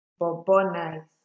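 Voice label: "bobonice", Nigerian Pidgin, female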